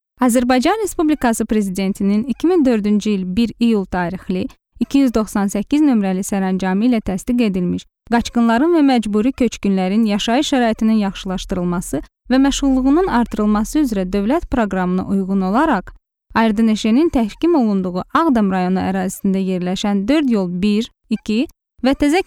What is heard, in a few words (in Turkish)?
Azerice Kadin; seslendirme